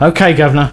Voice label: Yes, male, voice commands; svencoop

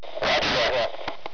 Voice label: gr radio

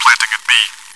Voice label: chitchat, bot, voice commands; svencoop

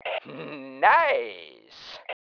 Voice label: NICE, MALE

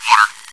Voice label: chitchat, bot, voice commands; svencoop

